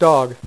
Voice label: "dog", Dealer's Speech; PowerPOKER Demo

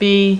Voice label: "b", female; alphabet; Web Clip Empire 150,000

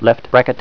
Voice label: Left Bracket, TK Voice slow; TalkingKeys

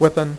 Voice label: "with an", dealer's speech; PowerPOKER Demo

